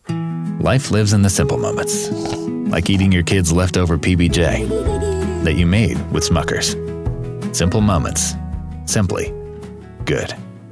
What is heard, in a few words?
Commercial; Smuckers